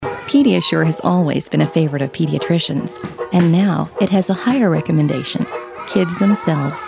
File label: smart confident knowledgeable fresh upbeat, voice; pediasure real